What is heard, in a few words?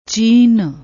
"cheen", Punjabi